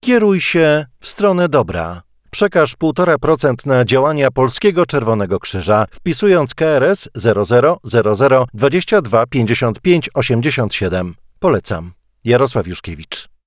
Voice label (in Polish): spot radiowy